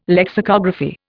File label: "lexicography", Indian